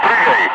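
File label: Russian speech, Ka-50 Wingman; Messages bail out; DCS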